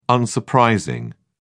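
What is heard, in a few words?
"unsurprising", British English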